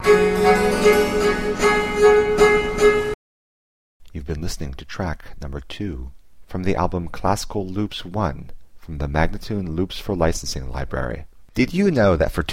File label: american baroque spoken; Loops For Licensing; Classical Loops 1; MagnaLoops